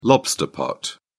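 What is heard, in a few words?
"lobster pot", English, British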